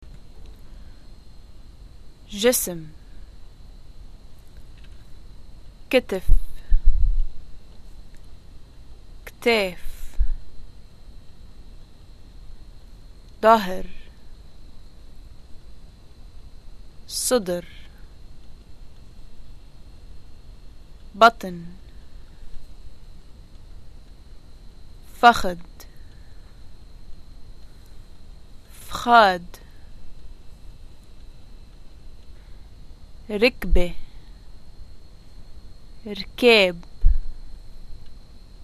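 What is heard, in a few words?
body, Lebanese Arabic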